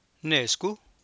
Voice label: "nee scúj", Copala Triqui